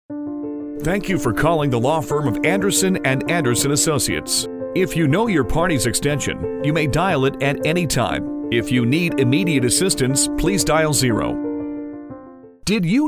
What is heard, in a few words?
Phone